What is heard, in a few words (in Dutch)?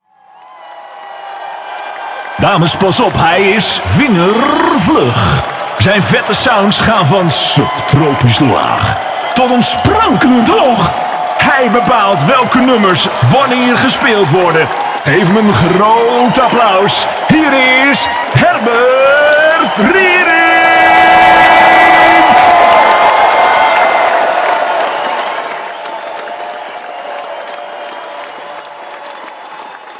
Stemdemo Aankondiging